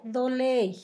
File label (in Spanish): relámpago, Zapoteco; Fenómenos; San Pedro Mártir; INALI